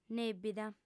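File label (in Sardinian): "nèbida", female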